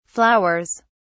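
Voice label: "flowers", British English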